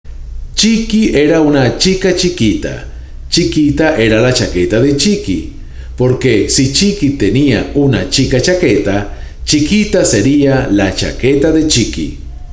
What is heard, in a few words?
spanish tongue twisters; 46 Spanish Tongue Twisters To Perfect Your Pronunciation